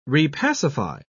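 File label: "repacify", English, British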